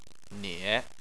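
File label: "nie" third tone, Chinese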